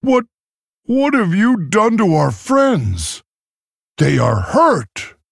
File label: Male Tauren; Guff